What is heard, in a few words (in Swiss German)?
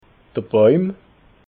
"boim", alsace